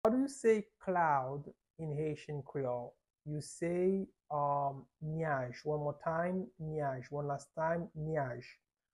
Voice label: How to say Cloud in Haitian Creole – Nyaj pronunciation by a native Haitian Teacher